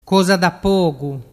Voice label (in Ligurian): "cosa da pogu", dialettu